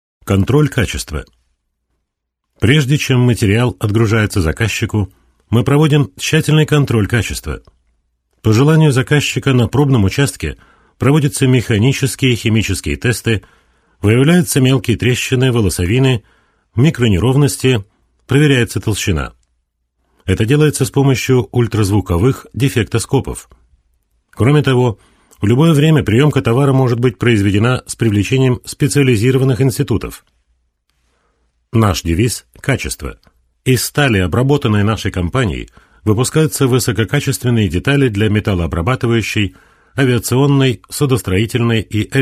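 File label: Russian, male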